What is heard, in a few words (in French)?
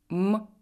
son m, russe